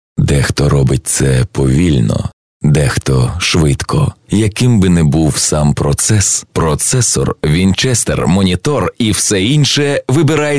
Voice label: UKR; audioreklama